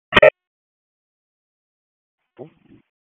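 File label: Dispatch 1, P25; WSO DISP 3; wake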